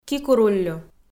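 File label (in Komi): "кикуруллю", Komi, female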